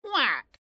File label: Duck exclaim